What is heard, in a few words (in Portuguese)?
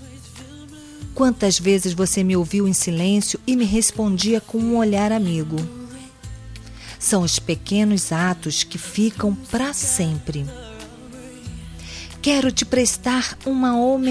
Amizade Tributo a Amizade, F